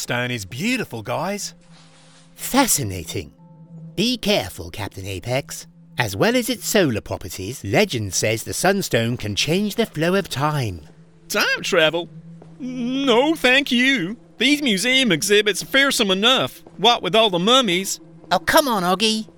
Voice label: Animation Reel